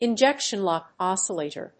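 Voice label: "injection-locked oscillator", English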